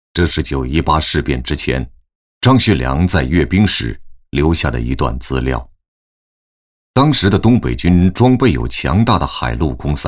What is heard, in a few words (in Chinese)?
男 纪录片; 抗日战争